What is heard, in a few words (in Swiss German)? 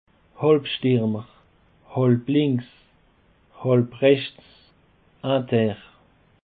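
"halbstuermer", Bas Rhin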